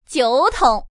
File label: woman